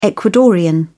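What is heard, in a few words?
"ecuadorian", British English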